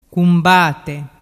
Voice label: "cumbate", dialettu

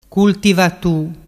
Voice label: "cultivatu", dialettu

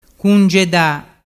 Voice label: "cungeda", dialettu

